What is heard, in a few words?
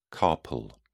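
"carpal", British English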